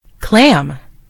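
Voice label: "clam", American English; gcompris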